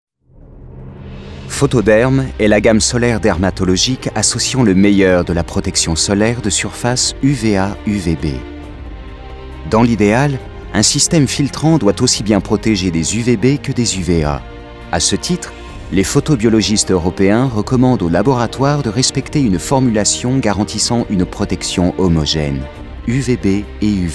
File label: Corporate